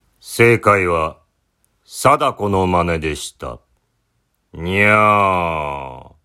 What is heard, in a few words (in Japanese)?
アフレコ部門; にゃんタメグランプリ